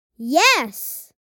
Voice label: Yes vocalization boy child kid